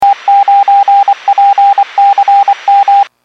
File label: Skywarn 146.67; Stevens Point